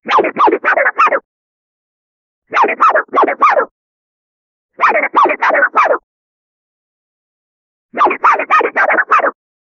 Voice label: a cartoon rat speaking

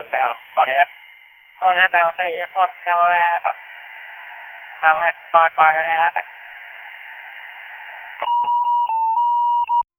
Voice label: a static filled corrupted, male voice

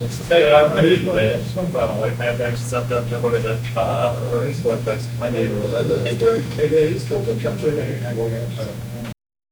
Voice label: 4 male mumbling in the office

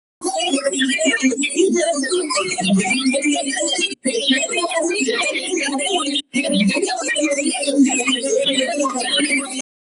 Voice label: space voise high pitch

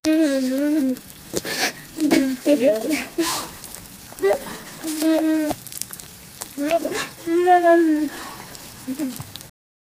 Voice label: a young japanese female i

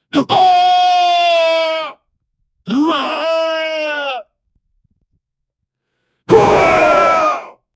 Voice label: angry man shouting

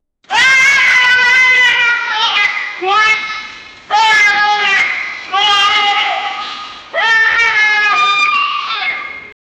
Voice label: a person screaming get ba

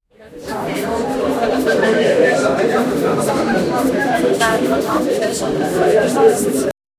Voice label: people whispering to each; chatting

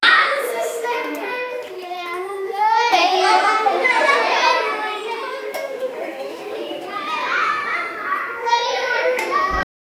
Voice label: children chatting

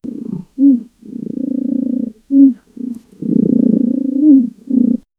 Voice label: warm purring gentle voice

